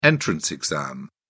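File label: "entrance exam", English, British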